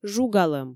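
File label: "жугалӧм", Komi, female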